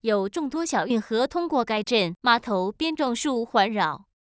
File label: concatenative, mandarin chinese